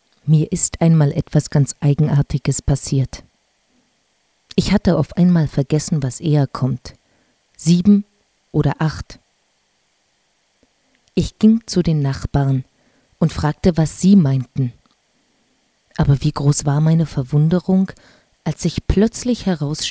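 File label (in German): Sprecherin deutsch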